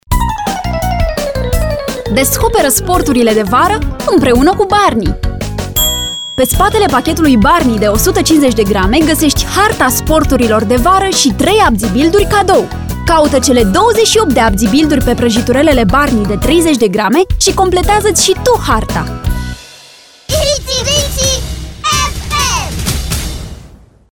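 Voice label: RO Commercial, Romanian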